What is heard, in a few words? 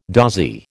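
"dozy", American English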